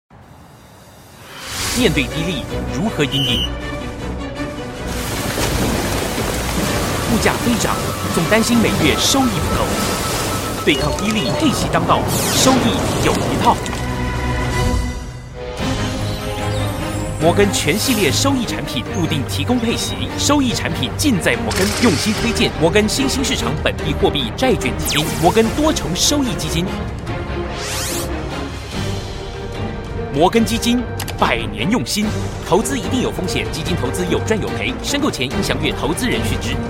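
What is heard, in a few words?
Taiwanese Chinese, Commercial; GoLocalise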